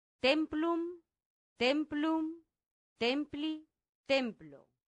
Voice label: "templum", latin; santillana